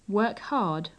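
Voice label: work hard, British English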